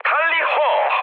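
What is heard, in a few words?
Korean enemy detected mood med, speech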